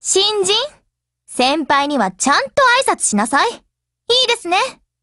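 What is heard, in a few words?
Japanese, Voice; BUILD OVER; GF Alarm; Spitfire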